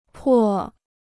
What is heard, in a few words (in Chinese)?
"破", Chinese